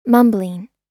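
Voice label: "mumbling", American English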